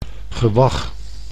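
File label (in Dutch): "gewag", Dutch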